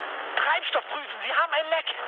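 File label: German tank damaged mood med, speech; War Thunder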